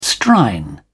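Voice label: "strine", British English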